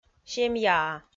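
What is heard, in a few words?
"семьяа", Komi, female; kpv audio dict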